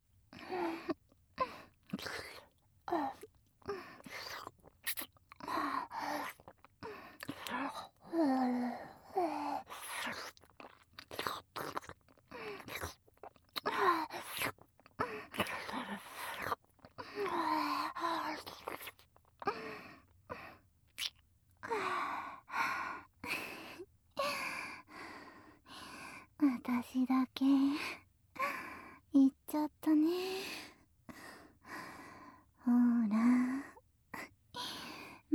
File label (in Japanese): 射精ボイスなし, ASMR